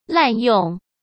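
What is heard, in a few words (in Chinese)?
"滥用" Mandarin Chinese; hsk; chinese-flashcards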